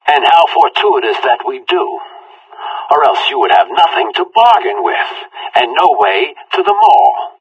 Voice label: conversation